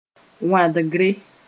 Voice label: "wãdgre", Mooré; dosh

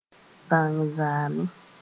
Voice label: "bãng zaamẽ", Mooré

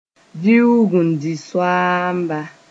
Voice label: "viuug-n-di-soaamba", Mooré

